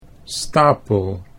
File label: "stapel", Dutch